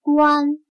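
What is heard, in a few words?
"官" Chinese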